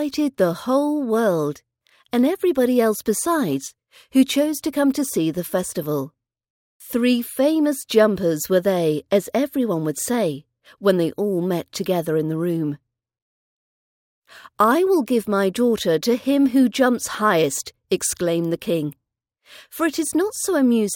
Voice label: the leap frog fairytale rp british accent practice